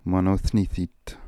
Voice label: "Monothnithit", pronounciations; Fourth Way; Beelzebub